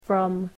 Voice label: "from", english